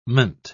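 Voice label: "-ment", British English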